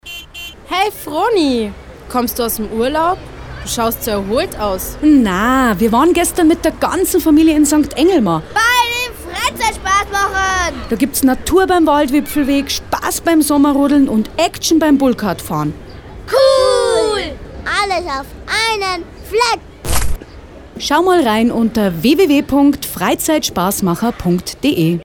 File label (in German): Werbung; Freizeitspassmacher St Englmar